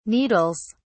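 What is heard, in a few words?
"needles", British English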